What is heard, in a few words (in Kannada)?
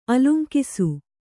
"ಆಲಂಗಿಸು", Kannada